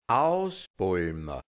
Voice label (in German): "ausbolma", hianzisch Mundart, Burgenland; ausdrücken; auswringen